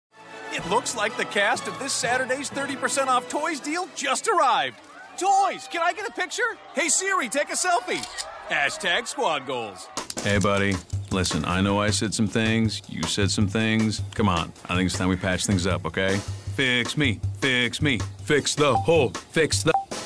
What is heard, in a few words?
commercial male